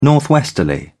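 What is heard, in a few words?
"northwesterly", British English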